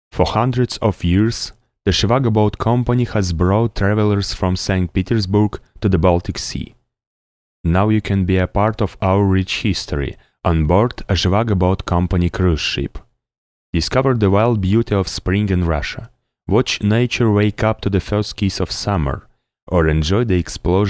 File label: Russian Generic English